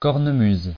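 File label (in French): "cornemuse" French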